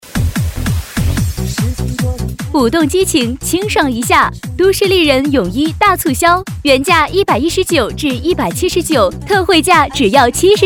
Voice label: 促销 激情 服装; 都市丽人

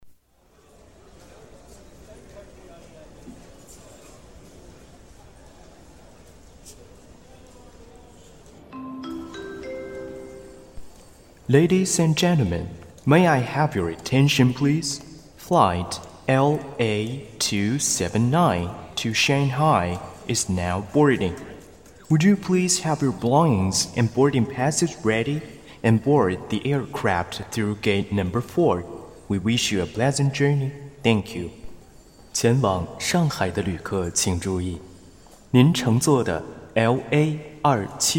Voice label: 机场中英双语广播

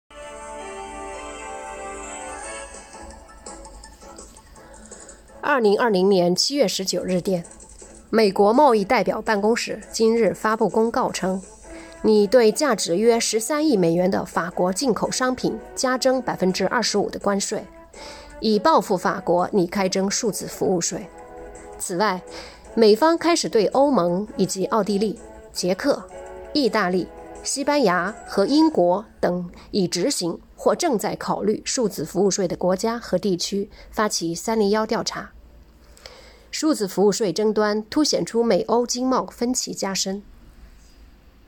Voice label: 新闻 播报

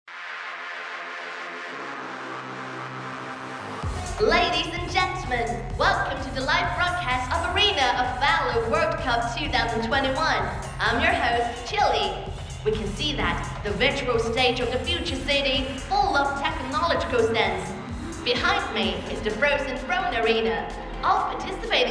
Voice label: 英电竞比赛开场

